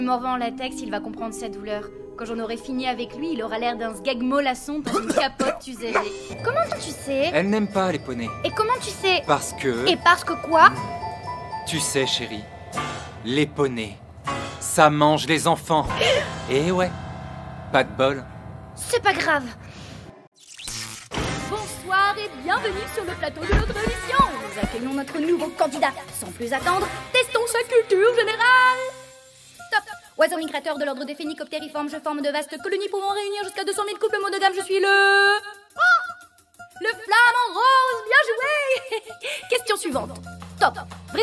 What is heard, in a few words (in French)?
Dessin anime